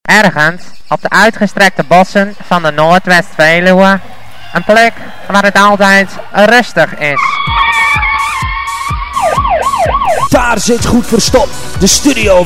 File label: Jingle; Audio Fragmenten; Arkan Radio 2